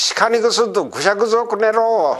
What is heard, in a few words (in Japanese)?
"goshagu", minami yamagata